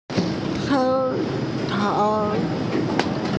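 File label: "shou3tao4", Mandarin Chinese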